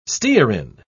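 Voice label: "stearin", British English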